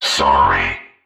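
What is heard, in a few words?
"sorry", synthesized voice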